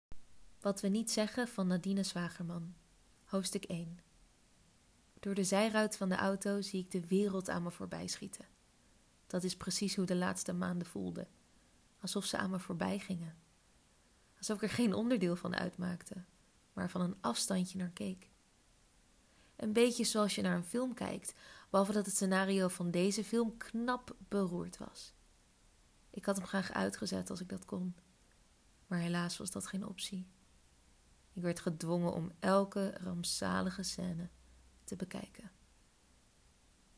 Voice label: Stemdemo Verhaal

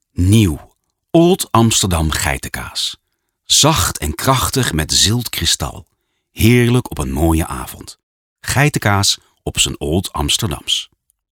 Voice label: Commercieel

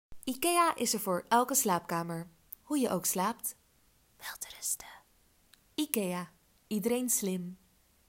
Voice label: Stemdemo reclame

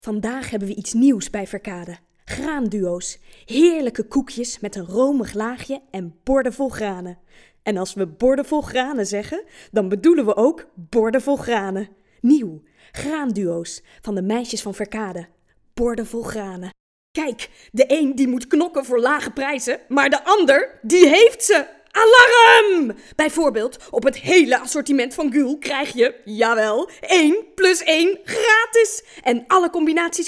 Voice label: enthousiast

